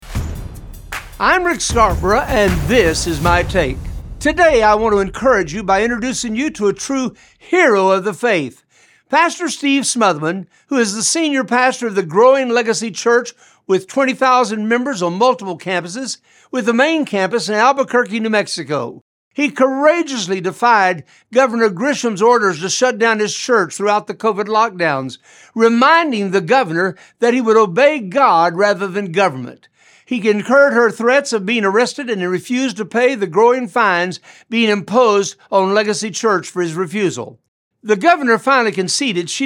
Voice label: Radio Spot